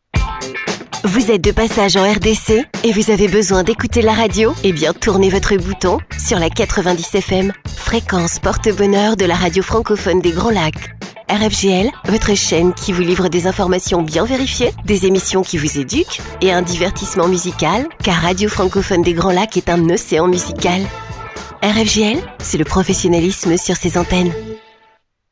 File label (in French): radio pub spot